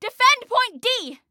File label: DEFEND, Female; T2 Female 02